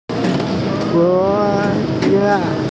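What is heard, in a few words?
"guo2jia1", Mandarin Chinese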